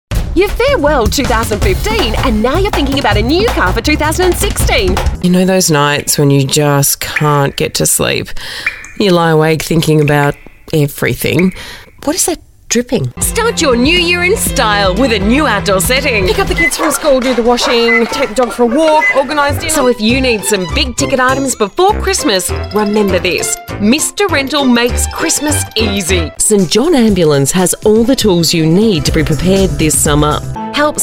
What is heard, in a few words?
female talent retail